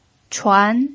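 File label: "chuan" second tone, Mandarin Chinese pinyin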